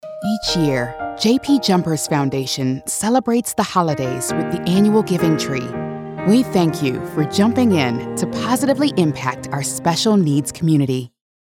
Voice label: VOG Caring Heartfelt, voice of god; JP Jumpers Foundation